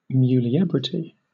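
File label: "muliebrity", British English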